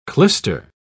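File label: "clyster", English, British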